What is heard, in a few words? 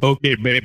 "okay babe", expletives